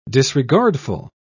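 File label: "disregardful", British English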